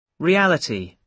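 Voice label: "reality", British English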